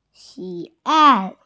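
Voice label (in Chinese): "xi3ai4", Mandarin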